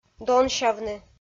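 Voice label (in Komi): "донсявны", Komi, female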